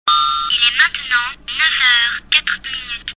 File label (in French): Montre Parlante Standard; Démonstration d'une montre parlante standard